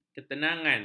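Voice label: "ketenangan", Malay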